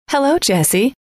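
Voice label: "hello", female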